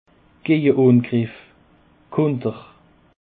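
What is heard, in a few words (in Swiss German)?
"gejeangreff", Alsatian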